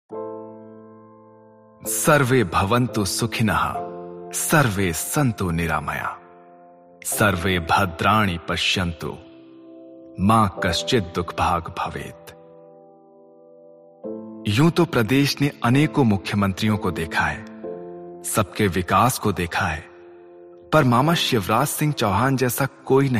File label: Emotional VO, Political Ad